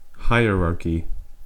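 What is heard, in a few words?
"hierarchy", US English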